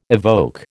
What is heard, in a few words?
"evoke", American